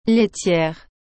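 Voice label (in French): "laitière", French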